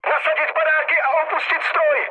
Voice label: bailout, speech cze, mood low; War Thunder

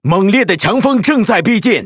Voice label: Speech Alarms Snowstorm

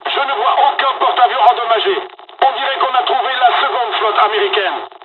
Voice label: dialog, dialogs french; midway; War Thunder

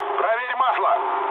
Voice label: damaged oil, mood low, speech ru; War Thunder

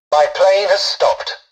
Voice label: tutorial dialogue, english; War Thunder

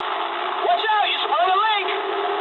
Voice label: eng damaged water mood low, speech eng; War Thunder

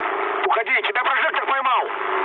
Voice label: searchlite, mood low, speech ru; War Thunder

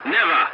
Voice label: voice message no, english; War Thunder

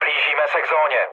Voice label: near waypoint, speech cze, mood low; War Thunder

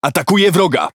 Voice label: Speech Pilots Torpedo Engage, PL; World Of Warships

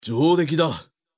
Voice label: Neutral YES; Civ5; Oda Nobunaga

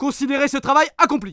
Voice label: France Order; Civ4

